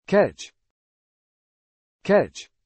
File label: "catch", English